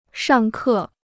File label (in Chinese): "上课", Chinese